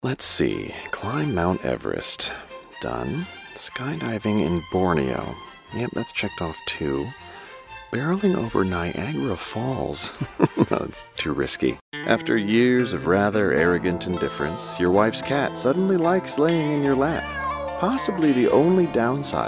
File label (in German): us sprecher werbung